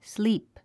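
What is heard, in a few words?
"sleep", English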